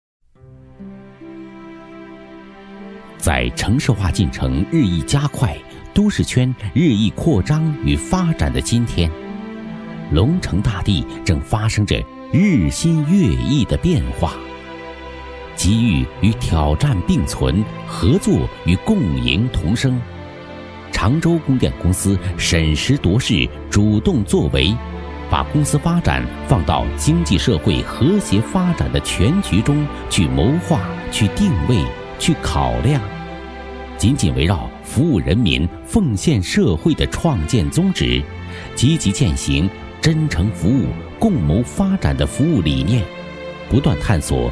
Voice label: 男 供电公司宣传片; 常州供电公司宣传片

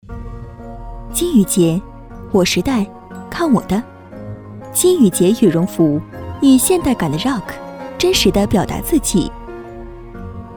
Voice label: 女 品牌广告类; 金羽杰; 雅版

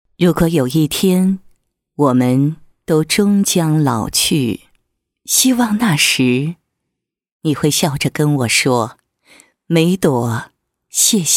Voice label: 女, 人物, 人物宣传配音; 平安保险

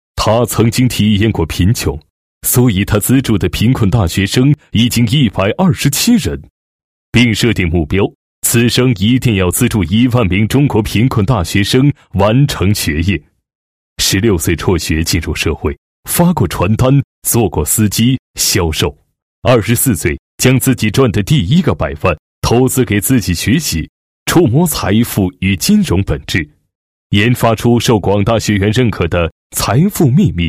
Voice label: 男 大气 人物介绍, 国语男声配音

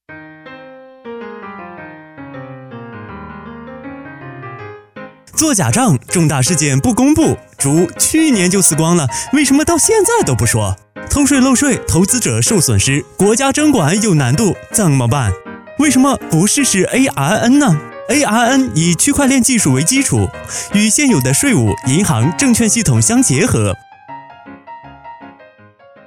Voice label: 男 电台节目 柔和 青春

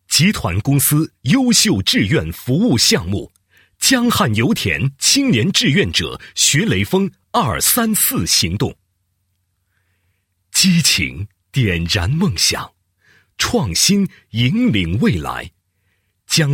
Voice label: 男 专题片, 配音; 集团公司优秀志愿服务项目专题片脚本

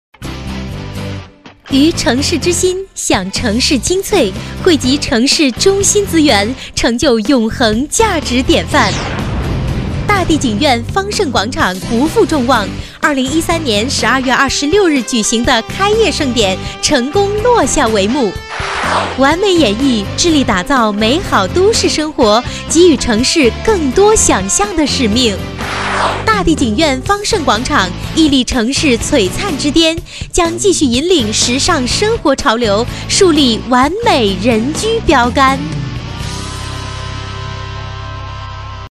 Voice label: 女 广告, 国语女声配音; 大地景苑方盛广场

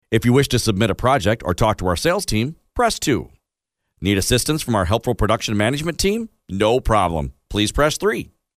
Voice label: 电话应答IVR

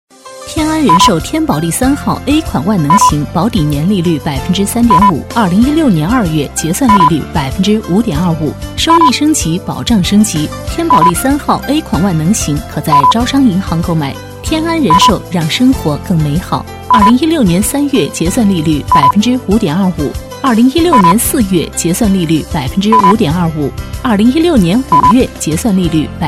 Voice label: 女 广告, 国语女声配音; 天安人寿

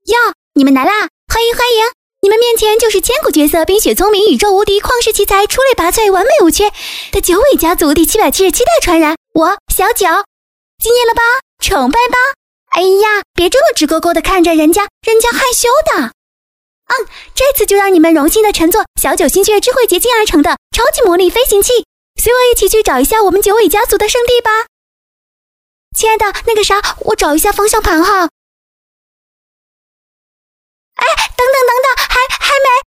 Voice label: 女 卡通 狐狸, 国语女声配音

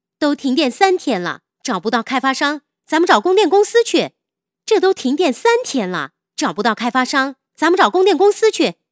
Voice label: 女 角色模仿 居民

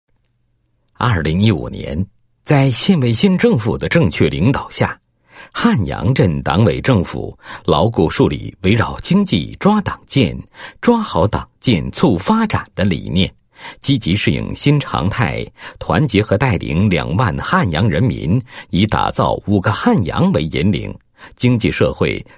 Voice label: 男 工作汇报 年末工作汇报; 城关镇

